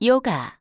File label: korean yoga; samsung YP-U5; Audio User Interface